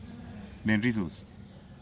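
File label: "benrizuz", Teotitlán del Valle Zapotec; drunkard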